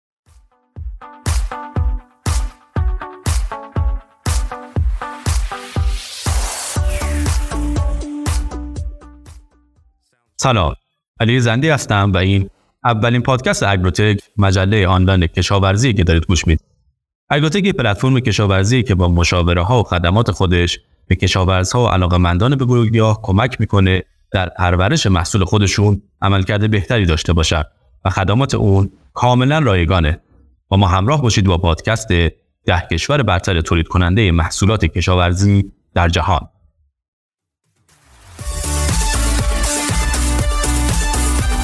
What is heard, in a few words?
Podcast; AgroTech; DeNoise